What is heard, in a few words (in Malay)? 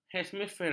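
"hemisfera", Malay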